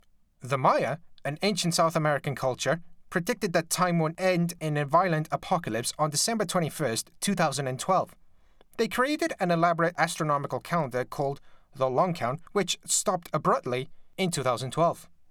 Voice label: documentary dialogue

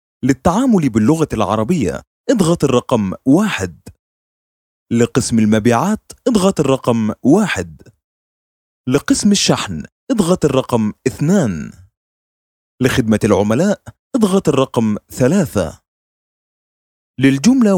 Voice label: IVR Classical Arabic Dry

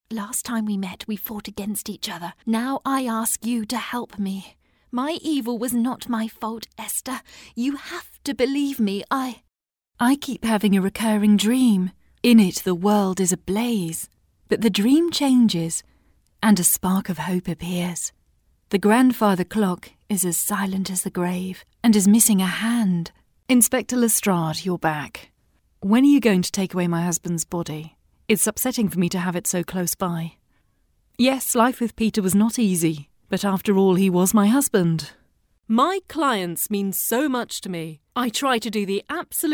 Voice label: Voice Acting

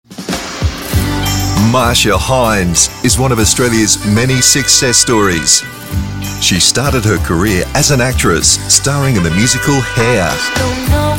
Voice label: Documentary

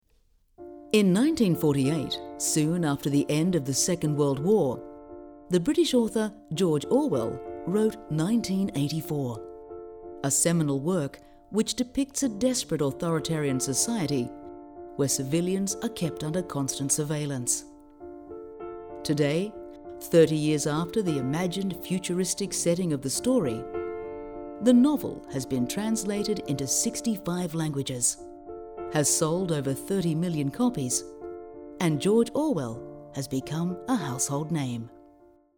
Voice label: Documentary

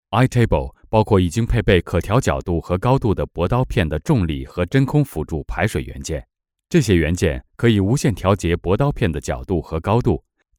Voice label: Mandarin Elearning

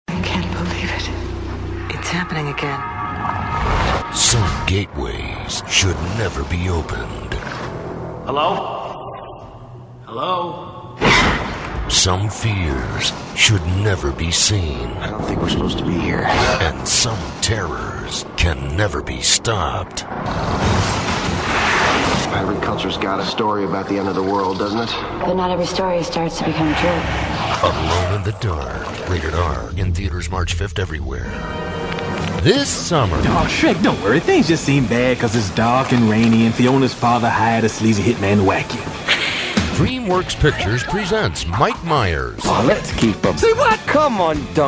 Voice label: Movie Trailers

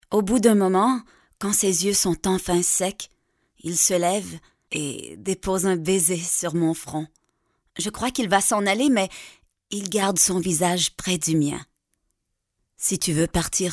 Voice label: FRENCH Fiction

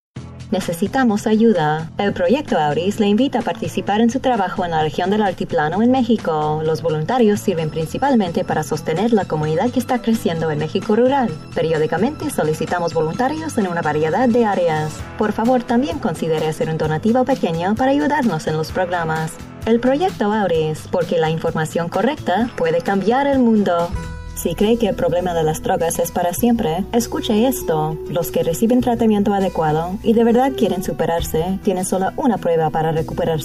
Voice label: Spanish Mix

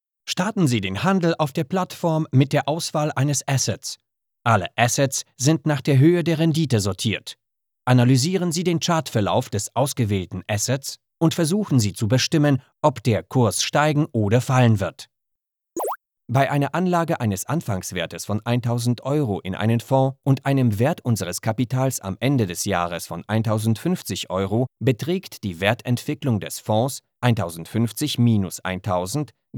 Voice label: GER eLearning

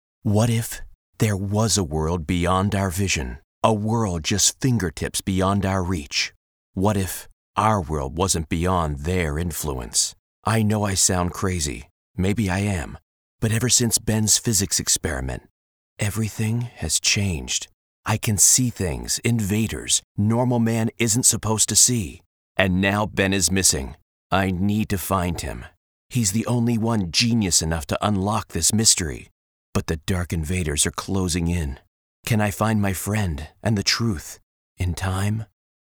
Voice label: Audiobook Narration

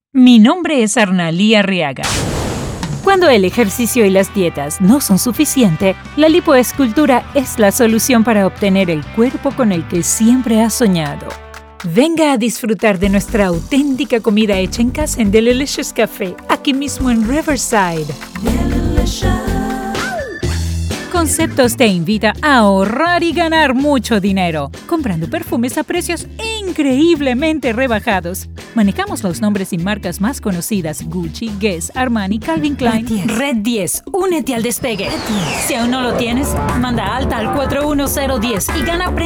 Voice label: Commercials

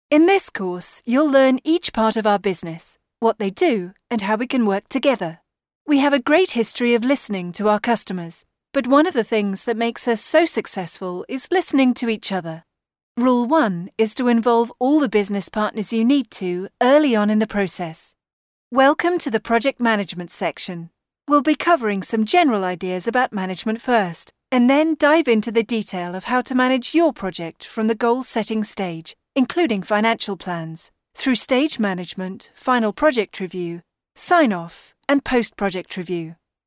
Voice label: eLearning

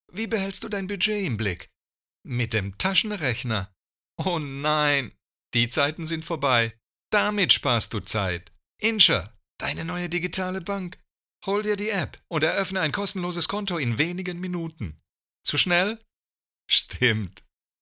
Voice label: GERMAN BANK APP

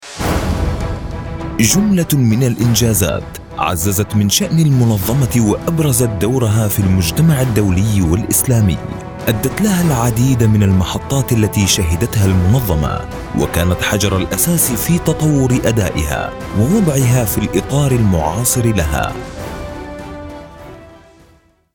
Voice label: Corperate sample with music

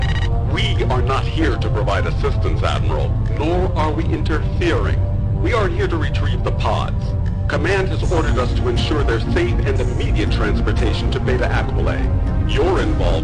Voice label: Multiple Characters

